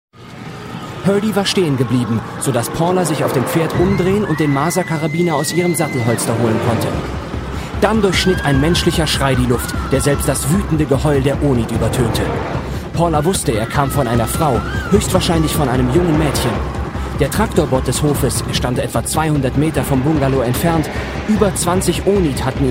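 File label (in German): acting, Dramatisch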